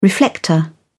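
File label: "reflector", English, uk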